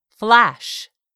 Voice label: "flash", English; 3000 words